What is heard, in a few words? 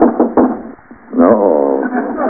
uh oh